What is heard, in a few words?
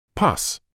"pus", English